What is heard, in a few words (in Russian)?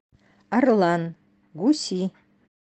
Орлан Гуси нанайский